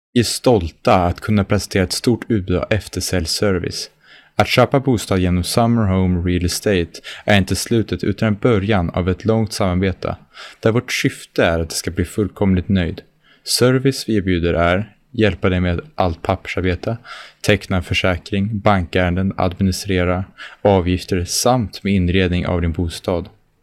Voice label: 瑞典语男 外语 小语种

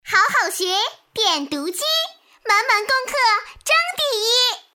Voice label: 动画 童声 女童, 女国

